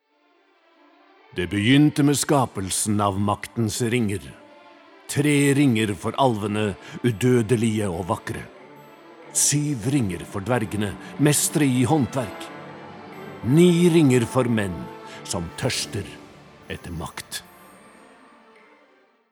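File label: Norwegian movie trailer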